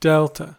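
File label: "delta", US English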